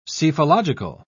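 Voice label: "psephological", British English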